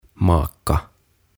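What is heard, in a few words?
"Maka", Swedish; Aviovaimo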